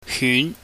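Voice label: "hyun", Cantonese, mid rising tone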